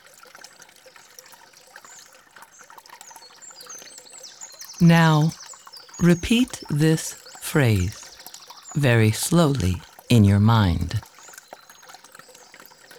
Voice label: Water meditation English only